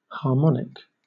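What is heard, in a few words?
"harmonic", British English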